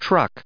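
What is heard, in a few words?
"truck", English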